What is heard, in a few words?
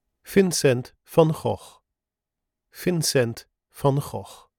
Dutch Pronunciation; Nethervoice